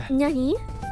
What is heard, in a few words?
cute nani; IRyS JP